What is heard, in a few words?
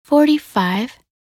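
"45", English; minute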